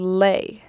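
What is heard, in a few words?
"lay", Native, American English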